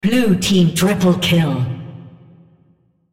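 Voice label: Triple Kill, female, en US; blue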